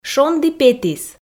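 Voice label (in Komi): "шонді петіс", Komi, female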